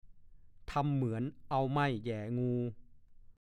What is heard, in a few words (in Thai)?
"ทำเหมือนเอาไม่แหย่งู", ภาษาโคราช; (สำนวน) อาการกล้า ๆ กลัว